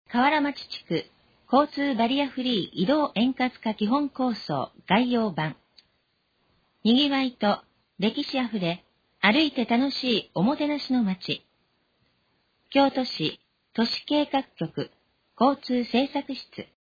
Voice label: narration